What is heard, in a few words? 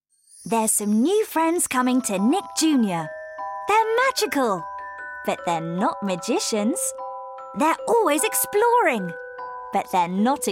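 Junior Fairies full promo, voice over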